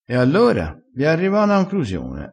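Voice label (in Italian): "concrusione", dialettale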